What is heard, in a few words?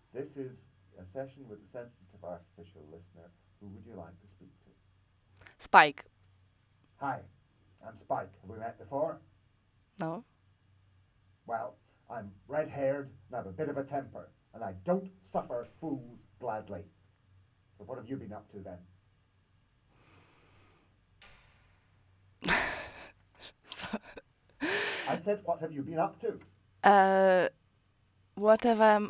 User Head Mounted; semaine; Spike